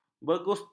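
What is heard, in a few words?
"bergusti", Malay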